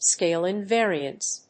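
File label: "Scale invariance", English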